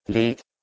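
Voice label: "bhleg", Proto-Indo-European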